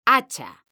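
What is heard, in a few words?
"hacha", Spanish, female; mekanta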